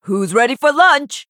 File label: Female Human Victory